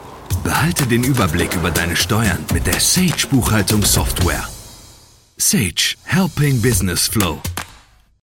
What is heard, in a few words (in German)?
Werbung, Commercial (Werbung), German; Sage 1